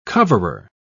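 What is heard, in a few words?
"coverer", British English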